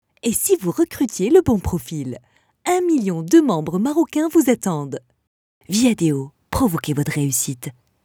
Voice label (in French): Tv Voix